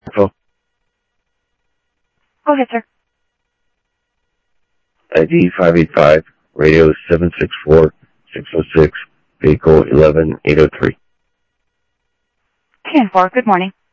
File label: Sheriff Central, scanner audio; ThompsonPeakScot; MCSO Central